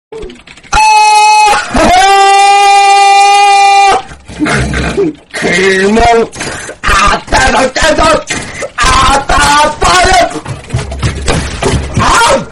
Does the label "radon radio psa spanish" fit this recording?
No